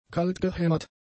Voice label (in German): "kaltgehämmert", German; adjectives